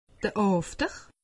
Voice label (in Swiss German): "after", alsace; medecine